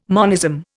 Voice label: "monism", Indian

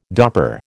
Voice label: "Dopper", American

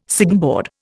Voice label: "signboard", Indian

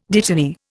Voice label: "dittany", Indian

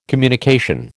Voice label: "communication", American

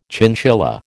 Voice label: "chinchilla", American

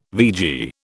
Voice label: "vegie", British

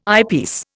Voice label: "eyepiece", Indian

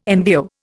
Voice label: "MVO", Indian

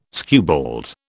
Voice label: "skewbald", British

